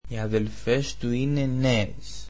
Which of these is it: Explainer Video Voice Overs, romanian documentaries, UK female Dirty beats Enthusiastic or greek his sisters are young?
greek his sisters are young